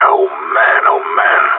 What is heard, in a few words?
scared emote, gsg9; Counter Strike Model Pack; CS:GO